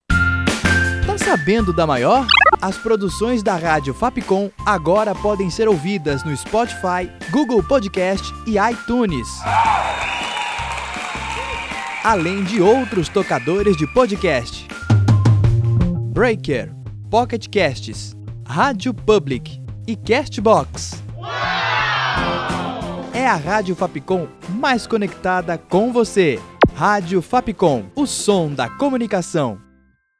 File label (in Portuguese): COMERCIAL RF